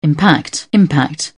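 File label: "impact", English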